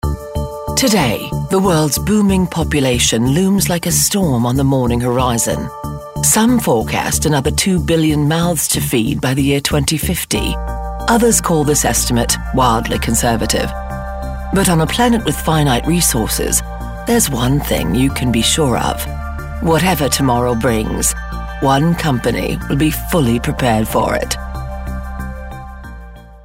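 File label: Future Challenges, Confident and sultry with a raspy timbre that is very reassuring.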